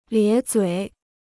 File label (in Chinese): "咧嘴", Chinese